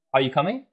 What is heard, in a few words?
Voice Acting es M